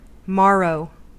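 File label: "morrow", US English; pronunciations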